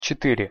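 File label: "cetyre", Russian pronunciation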